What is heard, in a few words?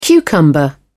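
"cucumber", British English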